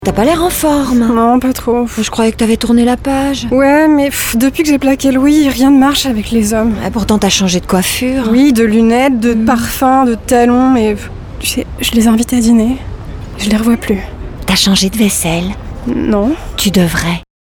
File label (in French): RADIO; Les Arts de la table; Pas en forme